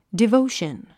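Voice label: "devotion", English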